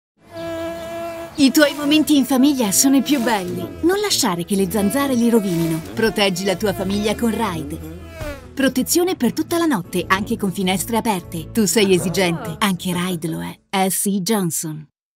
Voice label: raid protezione zanzare pubblicita spot

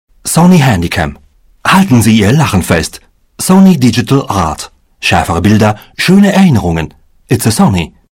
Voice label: Pubblicitario

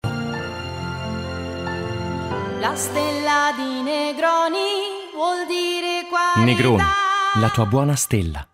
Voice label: spot nazionale; negroni

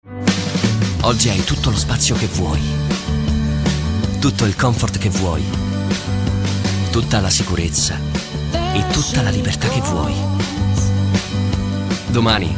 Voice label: spot; Fiat Freemont

